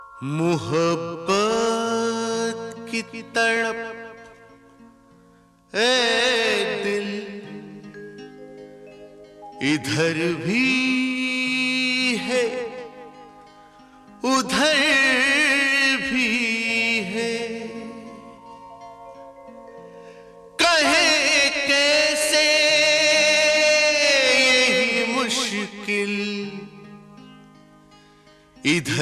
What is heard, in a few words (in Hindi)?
Mohabbat Shayari